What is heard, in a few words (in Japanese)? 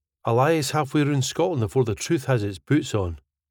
A lie is half way roon Scotland afore the truth has its boots oan スコットランド英語